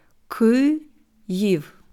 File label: "Kyiv" slow, ukrainische Aussprache